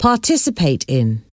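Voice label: "participate in", English word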